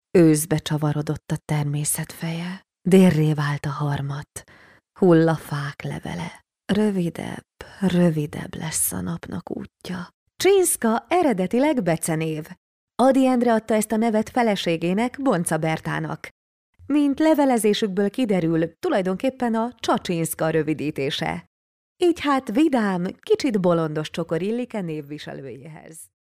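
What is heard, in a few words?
Hungarian, female, narration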